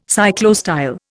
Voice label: "cyclostyle", Indian